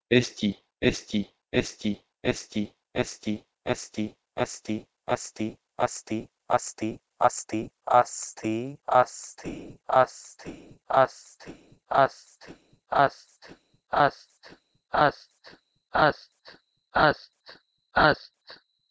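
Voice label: Proto-Indo-European h1esti to Persian ast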